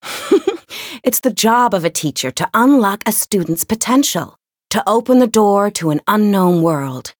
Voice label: Voice story; sherinu

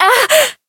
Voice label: Voice damaged

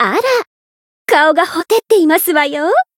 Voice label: win, jp; pom

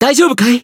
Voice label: Voice heal jp; cless

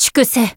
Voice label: attack, jp

